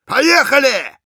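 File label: battle cry, Russian; Engineer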